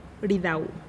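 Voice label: "ridau", Teotitlán del Valle Zapotec; sell verb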